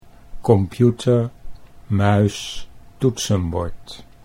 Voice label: "computermuistoetsenbord", Dutch